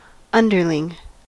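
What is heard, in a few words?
"underling", US English; pronunciations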